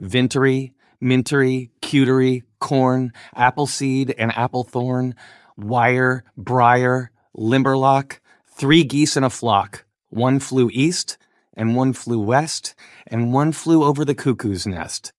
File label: Vintery Mintery Cutery Corn male us